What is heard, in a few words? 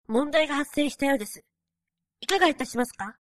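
robot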